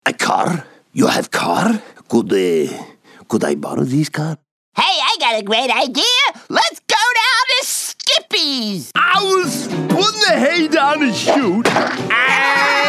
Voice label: Animation